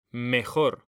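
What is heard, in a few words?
"mejor", Spanish, male; mekanta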